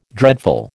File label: "dreadful", American